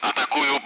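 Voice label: Speech Sound Russian Common Allied Flight; engaging; DCS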